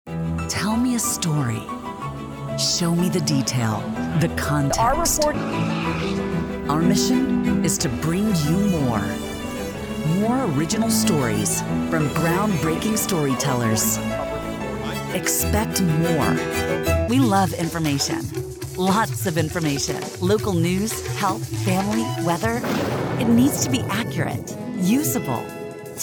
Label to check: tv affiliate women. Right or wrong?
Right